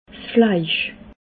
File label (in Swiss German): "fleisch", alsace